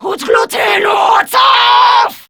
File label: Player Spotted, Grineer Female